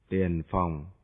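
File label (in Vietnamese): "tien phong", Vietnamese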